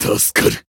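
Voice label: Voice healed, Japanese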